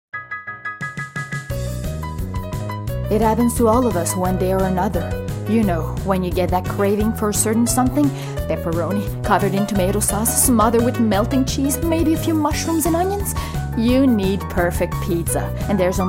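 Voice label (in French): Voix anglais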